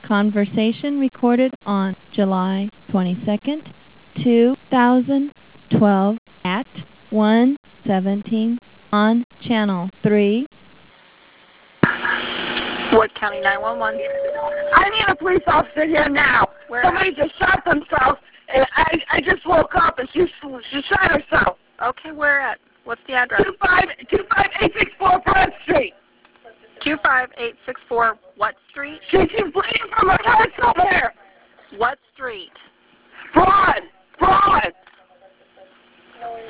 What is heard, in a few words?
911 call; Perrysburg Township homicide